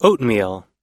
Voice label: "oatmeal", us; ひき割りオート麦